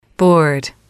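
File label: "bored", female, English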